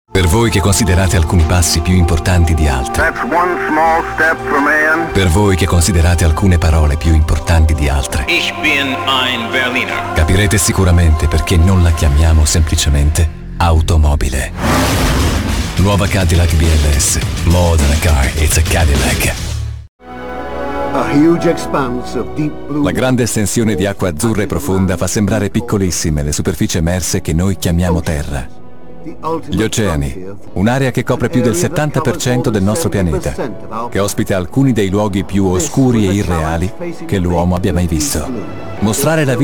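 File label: Italian General; GoLocalise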